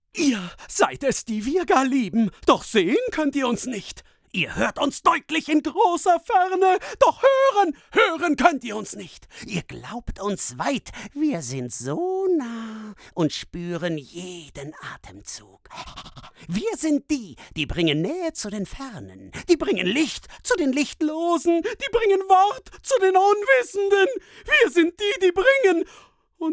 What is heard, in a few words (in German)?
psycho, maenner